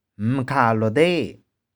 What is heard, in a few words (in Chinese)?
"m3kha2loh4de1", 玉環